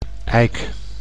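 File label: "eik", Dutch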